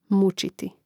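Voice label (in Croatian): "muciti", Hrvatski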